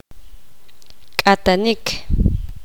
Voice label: poqomam; cavar